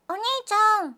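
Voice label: "oniichan", Japanese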